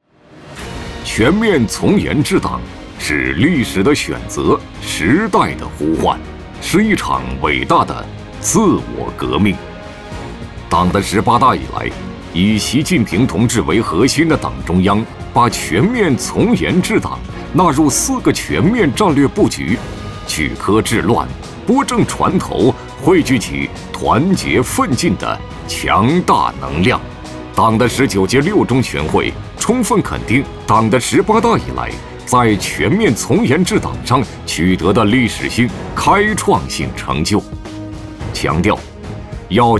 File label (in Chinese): 男 专题片 反腐倡廉